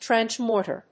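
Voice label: "trench mortar", English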